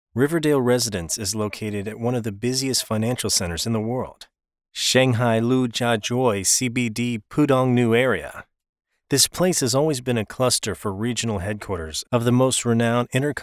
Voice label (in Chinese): 大气宣传片